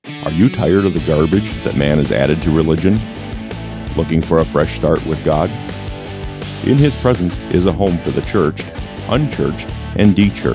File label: radio ad